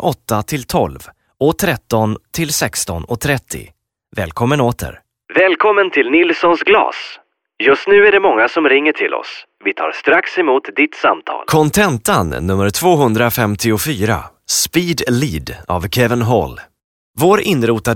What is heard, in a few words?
Corporate Narrative, male voice, Swedish; Corporate/Narrative Showreel